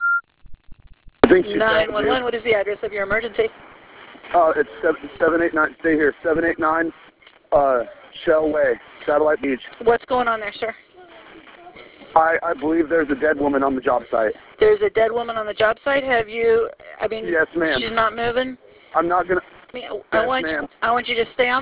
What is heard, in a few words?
911 call